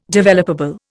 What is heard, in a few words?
"developable", Indian